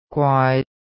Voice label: "gwaai3", cantonese

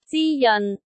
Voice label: "zi1 jeon6", cantonese

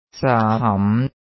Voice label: "zaap6ham2", cantonese

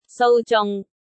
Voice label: "sou3 zung6", cantonese

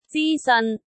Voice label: "zi1 seon3", cantonese

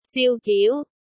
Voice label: "ziu3 giu2", cantonese

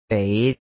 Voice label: "bei3", cantonese

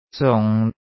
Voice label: "zung6", cantonese

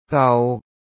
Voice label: "gau3", cantonese

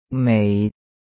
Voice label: "mei6", cantonese

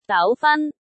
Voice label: "gau2 fan1", cantonese

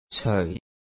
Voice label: "ceoi4", cantonese

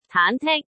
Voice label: "taan2 tik1", cantonese

